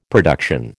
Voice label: "production", American